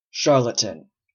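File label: "charlatan", Canadian English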